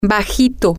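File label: short, Spanish, female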